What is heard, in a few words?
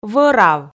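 "вӧрав", Komi, female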